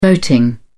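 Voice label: "voting", British English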